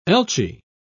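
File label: "elchee", British English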